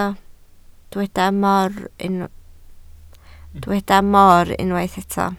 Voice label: "moor", Welsh